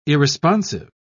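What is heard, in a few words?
"irresponsive", British English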